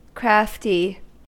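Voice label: "crafty", American English; pronunciations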